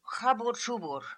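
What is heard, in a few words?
"Khaboor Chooboor", pronunciations; Fourth Way; Beelzebub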